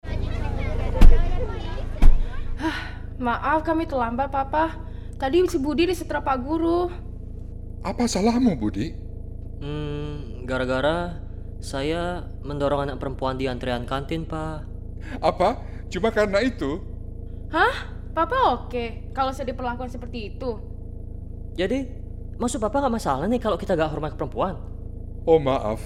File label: Radio Indonesian; CALD